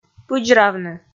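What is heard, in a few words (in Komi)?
"пыдзравны", Komi, female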